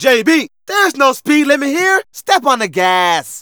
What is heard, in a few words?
Narration